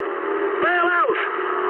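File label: English bailout mood high; War Thunder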